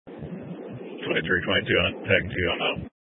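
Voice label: Incident Tactical 2, Scanner audio recording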